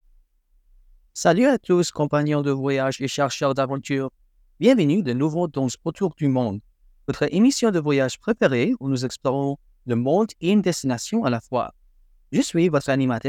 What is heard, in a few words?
French